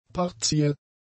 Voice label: "partiell", German; adjectives

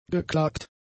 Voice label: "geklagt", German; adjectives

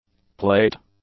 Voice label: "plate", English; nouns

